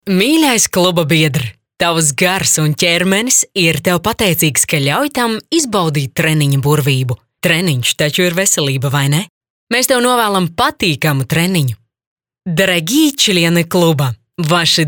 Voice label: premium female, balsai latviu moteris